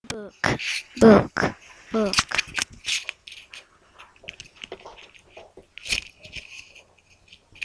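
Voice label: "book", spoken word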